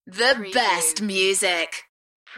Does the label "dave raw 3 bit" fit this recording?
No